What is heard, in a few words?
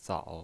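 "zao" Mandarin Chinese pinyin voice, third tone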